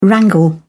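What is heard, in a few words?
"wrangle", British English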